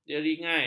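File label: "jeringai", Malay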